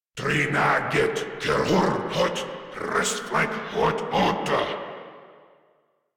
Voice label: Attack, Grineer Male